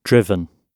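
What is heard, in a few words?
"driven", British English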